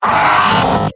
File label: pain sharp; demoman; mvm